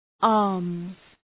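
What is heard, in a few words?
"arms", English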